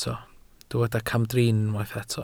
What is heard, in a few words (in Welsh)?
"camdrin", Welsh